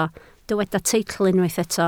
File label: "teitl", Welsh